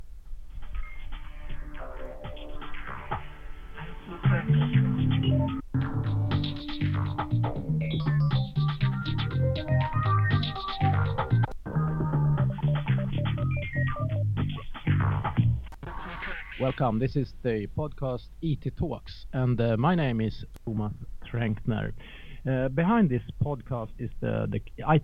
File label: CVSD vs mSBC playback, bluetooth headset audio